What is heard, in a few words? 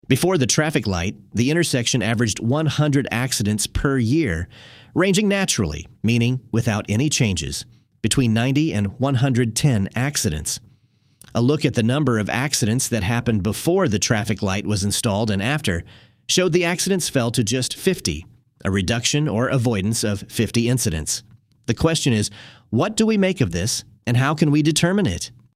narration; Bayesian Explainer; bayesian vs frequentist research